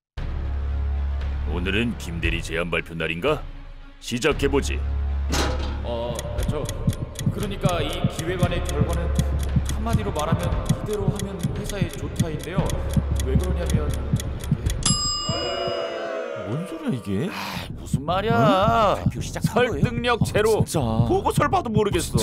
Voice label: 홍보 연기포함 교육물

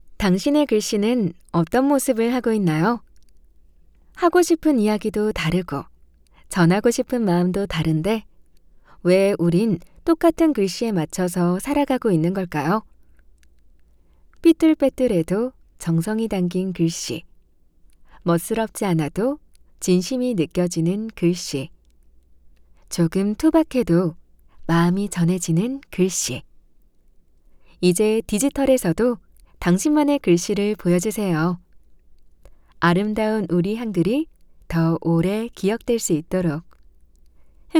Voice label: 광고 나레이션 감성적인

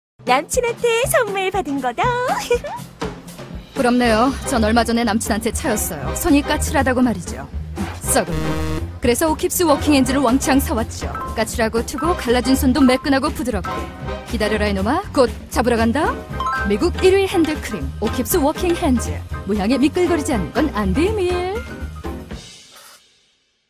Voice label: 중성적인 더빙 자연스러운

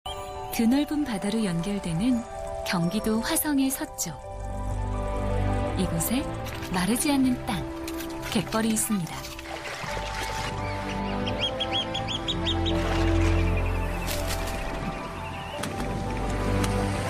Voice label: 다큐; 다큐on

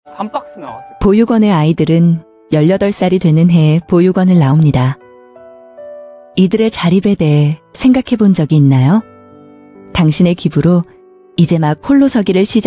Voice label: 따듯한 희망찬

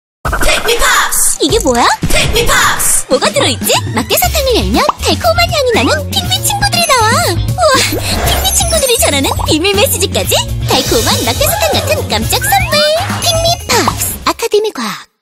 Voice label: TV CM; 픽미팝스

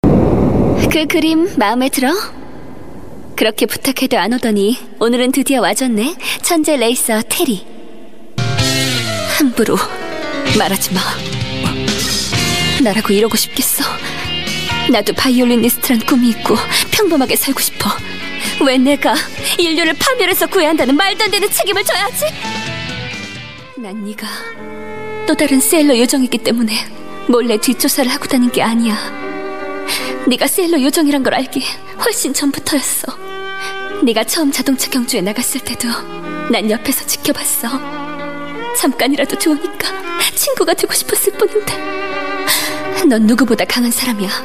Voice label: 우아하고 고상한 소녀 세련된 20대; 세일러문 세일러 넵튠

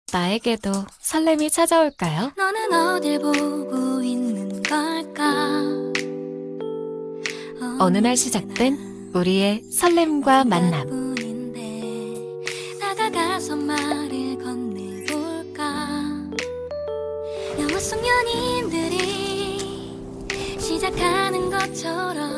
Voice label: 차분함 신뢰감 진중함

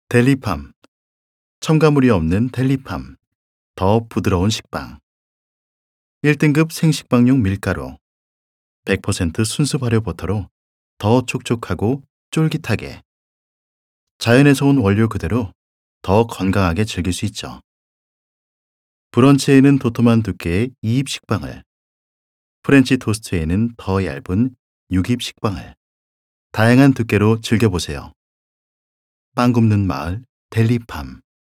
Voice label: 동화 느낌; 델리팜

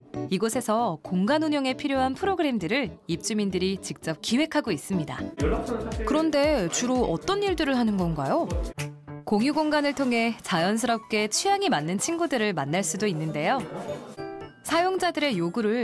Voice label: NA 기본톤 캐쥬얼한 전문적인

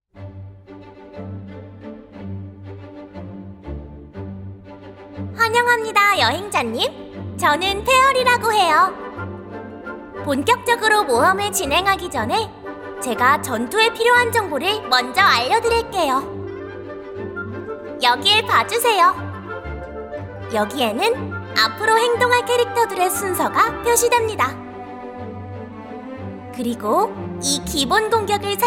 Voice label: 게임 튜토리얼 귀엽고 상냥한 톤

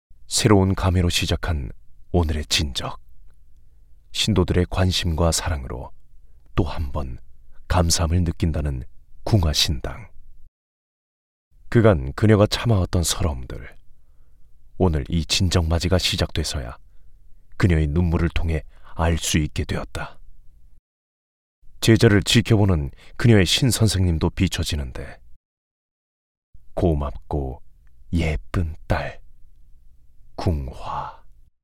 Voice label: 무속다큐 na 담백한 부드러운 트렌디

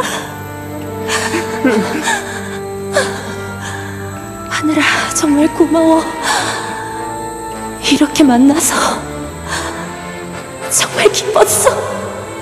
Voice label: 30대 후반 다정하고 따뜻한 엄마

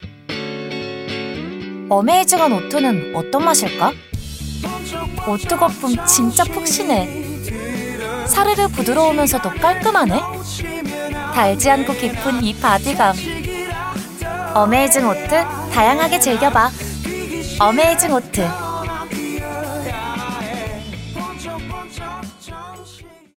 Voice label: 밝은 풋풋한 대학생 느낌

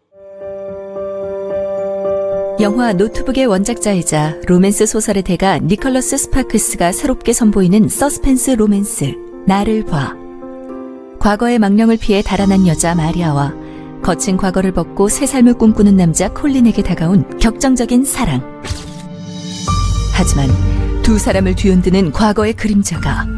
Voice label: 차분한 진중한

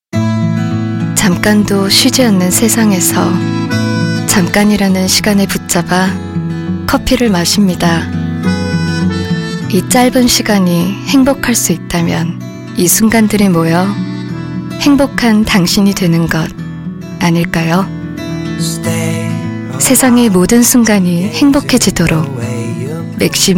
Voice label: 차분한; MAXIM; 이나영톤

